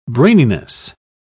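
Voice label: "braininess", British English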